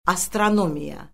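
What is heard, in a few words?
"astronomiya", Azerbaijani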